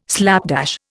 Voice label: "slapdash", Indian

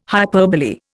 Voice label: "hyperbole", Indian

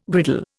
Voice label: "griddle", Indian

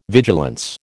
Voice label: "vigilance", American

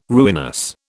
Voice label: "ruinous", British

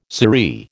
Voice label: "sirree", American

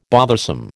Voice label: "bothersome", American

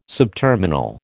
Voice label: "subterminal", American

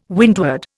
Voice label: "windward", Indian

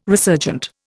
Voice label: "resurgent", Indian

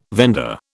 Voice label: "vendor", British

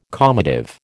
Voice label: "calmative", American

